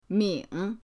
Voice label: "ming3", pinyin